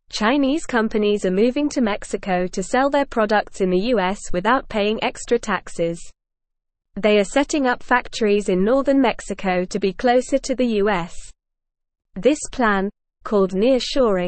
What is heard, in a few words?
English Lower Intermediate NORMAL Reading; Chinese Companies Move to Mexico to Avoid US Fees